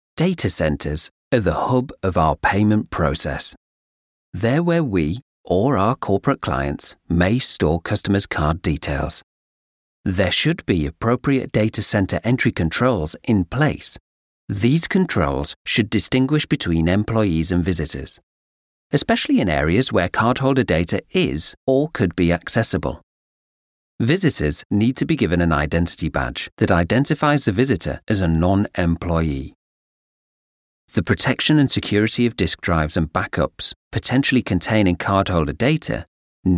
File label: e-learning